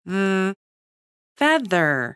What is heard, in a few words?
babys sound audio FEATHER